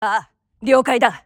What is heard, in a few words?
japanese Idle; azurlane hoi4; azurlane tj